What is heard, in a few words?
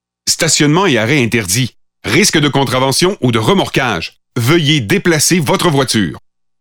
Pathfinder French Canadian